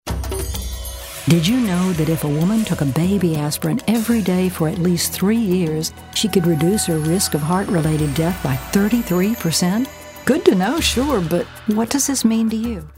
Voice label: smooth